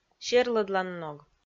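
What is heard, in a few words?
"серлӧдланног", Komi, female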